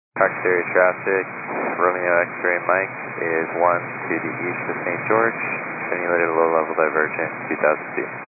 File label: 122.925 MHz; scanner radio